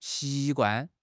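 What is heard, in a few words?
"xi2 guan4", Chinese; HSK; HSK3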